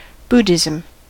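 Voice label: "buddhism", American English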